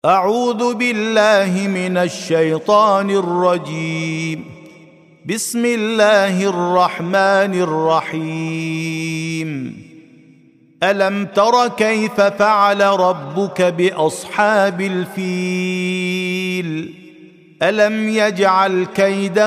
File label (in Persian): ترتیل سوره مبارکه فیل